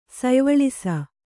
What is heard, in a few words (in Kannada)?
"ಸಯ್ವಳಿಸ", Kannada